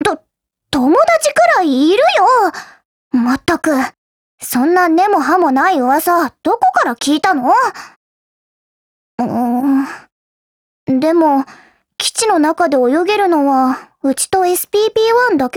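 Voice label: DIALOGUE, Japanese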